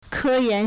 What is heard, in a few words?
"ke1yan2", Chinese